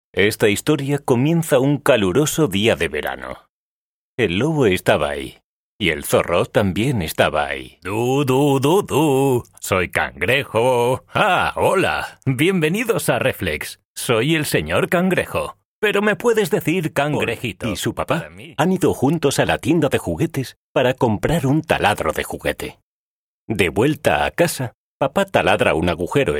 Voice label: Audiobooks

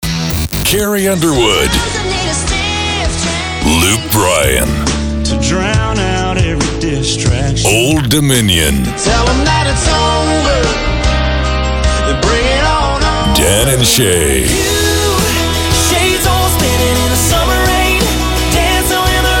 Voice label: imaging country

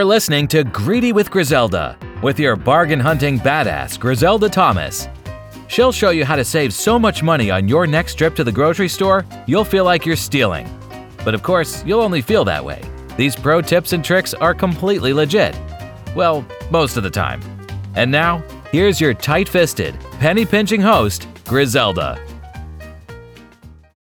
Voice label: Podcast Intro Outro

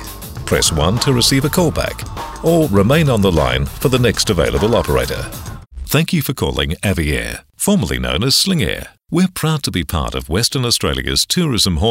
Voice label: On Hold

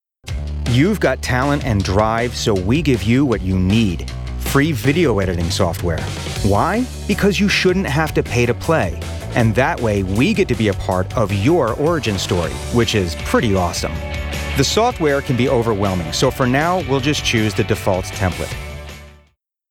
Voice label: Narration eLearning

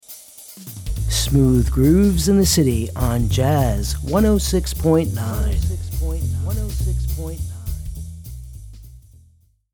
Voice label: radio imaging

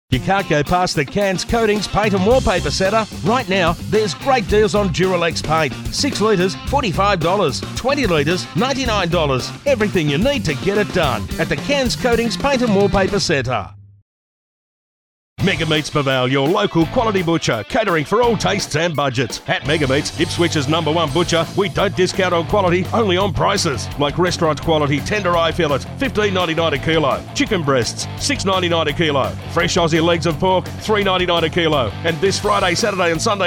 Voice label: VOICE SAMPLES COMMERCIAL

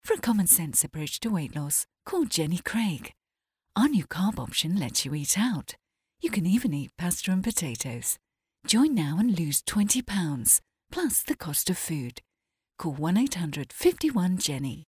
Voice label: Advert; Jenny Craig

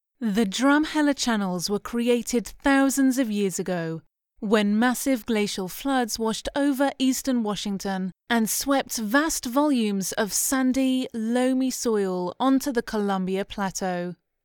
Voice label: Narration Nature

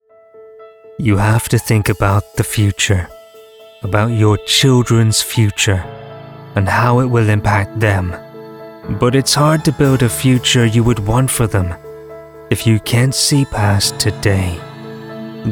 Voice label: Corporate Sincere

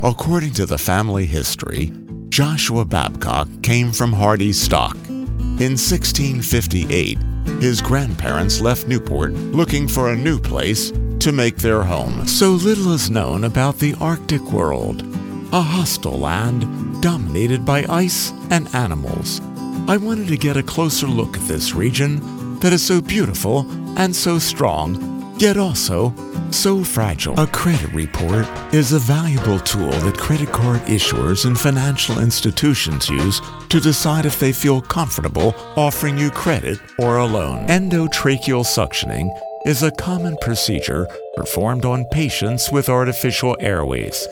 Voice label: Docu and Explainer w Music

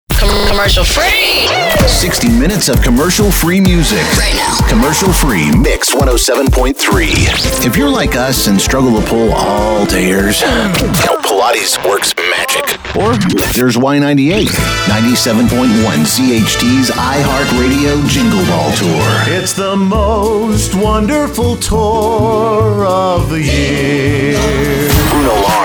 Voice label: Top 40 HAC Imaging

